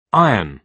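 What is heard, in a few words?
"iron", British English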